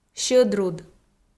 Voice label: "сьӧдруд", Komi